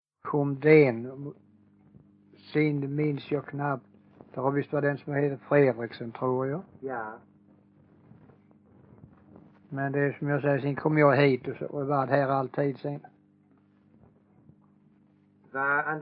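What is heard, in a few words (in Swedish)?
Everöd x Huaröd Skåne, dialekter; Folkminnen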